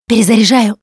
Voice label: Reloading Quiet, russian; Producer